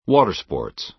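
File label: "water sports", American English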